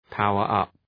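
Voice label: "power up", English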